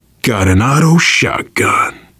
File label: take auto shotgun, voice, biker; Left 4 Dead 2; survivor